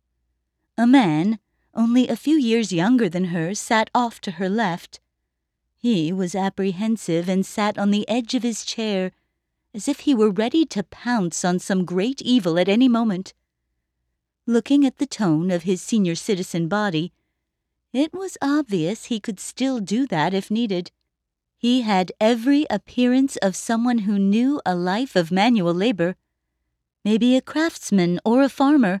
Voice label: Horror Narration Dialogue Man and Older Woman